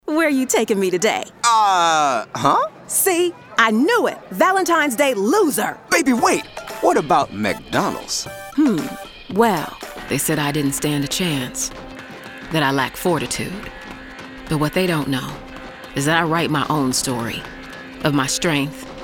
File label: Commercial